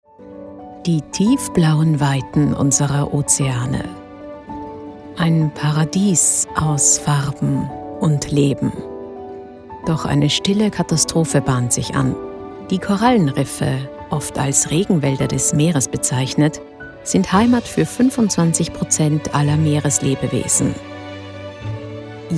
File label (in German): Dokumentation